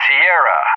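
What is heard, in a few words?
"Sierra", English; JTAC; Alphabet; DCS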